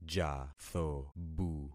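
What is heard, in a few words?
"jathobu", concatenated syllables; Affixing experiment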